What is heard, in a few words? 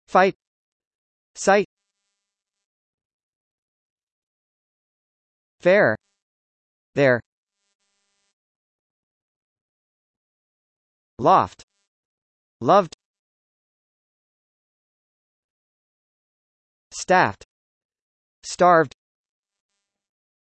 f US, Englisch richtig aussprechen; der buchstabe f